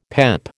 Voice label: "PEP", American